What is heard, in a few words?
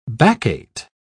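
"baccate", British English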